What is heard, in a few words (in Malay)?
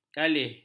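"kalih", Malay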